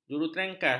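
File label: "jurutrengkas", Malay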